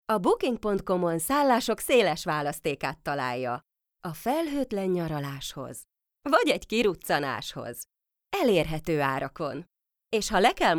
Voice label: TVC; English (North American)